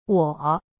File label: "我", Chinese; cyberchinese